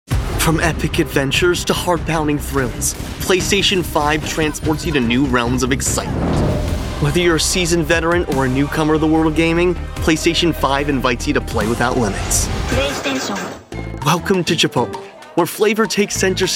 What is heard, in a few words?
vo commercial